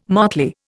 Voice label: "motley", Indian